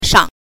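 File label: "shang", Mandarin Chinese syllable, neutral tone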